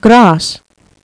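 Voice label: "grass", English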